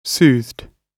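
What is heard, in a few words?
"soothed", British English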